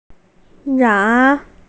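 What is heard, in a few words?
"ran2", Mandarin Chinese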